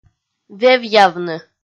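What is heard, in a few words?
"вевъявны", Komi, female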